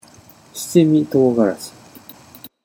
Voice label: "shichimitogarashi", Japanese